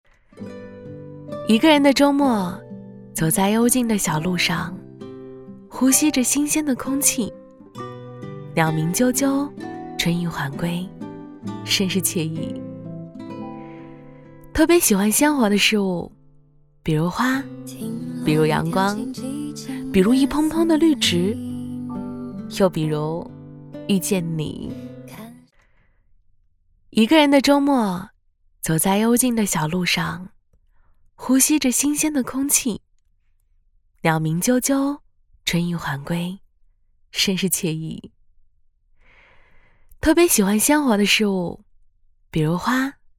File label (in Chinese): 女 旁白 一个人的周末